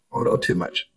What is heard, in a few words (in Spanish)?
Voice Acting es M